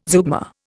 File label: "zeugma", Indian